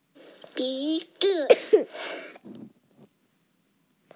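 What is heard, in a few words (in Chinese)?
"bi2zi5", Mandarin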